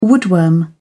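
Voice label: "woodworm", British English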